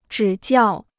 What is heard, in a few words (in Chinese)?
"指教", Chinese